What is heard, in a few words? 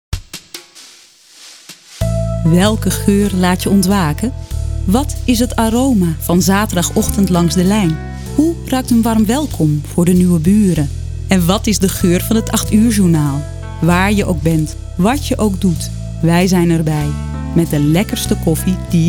Commercial